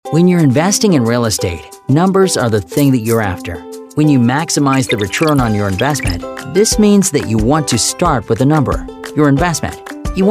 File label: EXPLAINERS